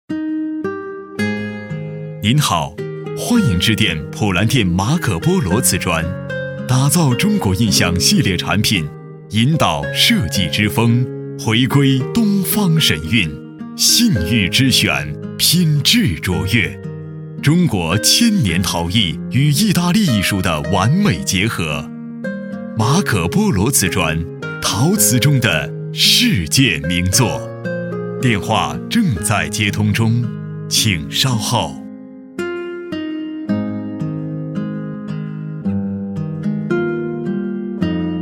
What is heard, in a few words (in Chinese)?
彩铃 瓷砖, 国语男声配音; 马可波罗瓷砖